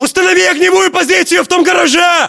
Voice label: order cover garage, ru; localized english